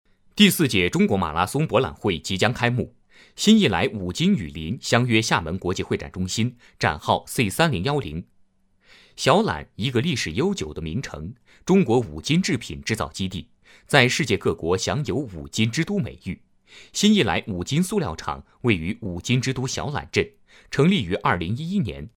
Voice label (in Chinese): 男 新闻